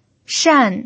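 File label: "shan" Mandarin Chinese, fourth tone, pinyin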